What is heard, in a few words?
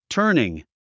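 "turning", English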